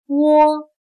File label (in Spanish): "窝", Chino